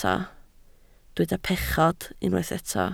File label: "pechod", Welsh